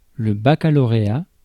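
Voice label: "baccalauréat", French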